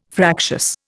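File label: "fractious", Indian English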